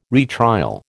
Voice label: "retrial", American

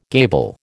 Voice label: "gable", American

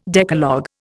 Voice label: "Decalogue", Indian

